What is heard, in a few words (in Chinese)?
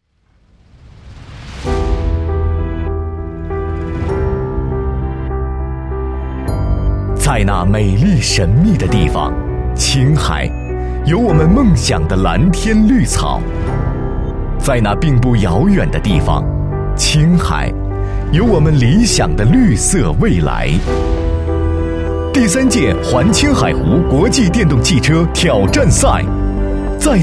赛事宣传